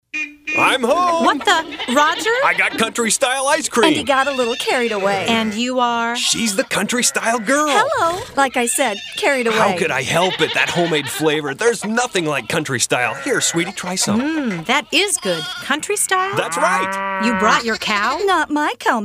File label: Radio Ad; Country Style; Opening